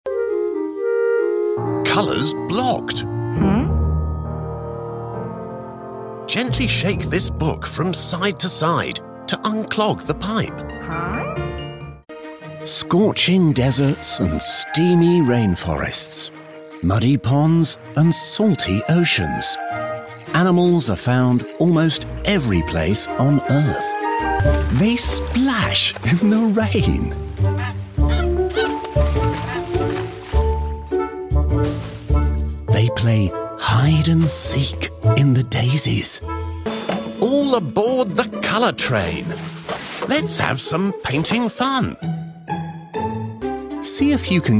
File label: english british audiobooks